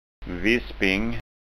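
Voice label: "visping", Scanian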